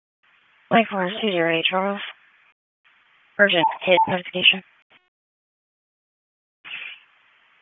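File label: Police Dispatch; Wake Forest Police Disp; wake p25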